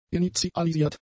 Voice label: "initialisiert", German; adjectives

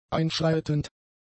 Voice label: "einschreitend", german; adjectives

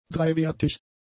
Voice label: "dreiwertig", German; adjectives

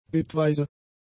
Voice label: "bitweise", German; adjectives

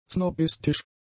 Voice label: "snobistisch", german; adjectives

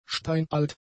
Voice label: "steinalt", German; adjectives

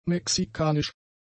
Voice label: "mexikanisch", German; adjectives

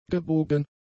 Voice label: "gebogen", German; adjectives

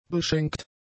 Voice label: "beschenkt", German; adjectives

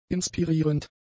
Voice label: "inspirierend", German; adjectives

